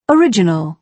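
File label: "original", British English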